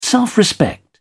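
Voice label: "self respect", British English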